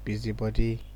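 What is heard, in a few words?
"busybody", Nigerian Pidgin, male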